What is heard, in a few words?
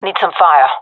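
request fire, gendarmerie female; vo; agents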